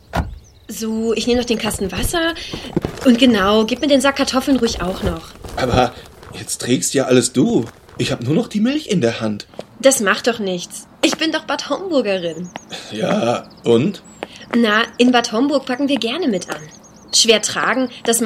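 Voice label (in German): sprecherprobe ad mini hörspiel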